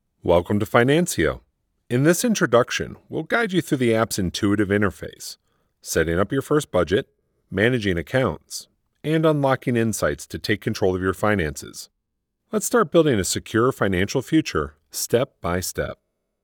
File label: explainer